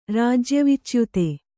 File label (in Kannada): "ರಾಜ್ಯವಿಚ್ಯುತ", Kannada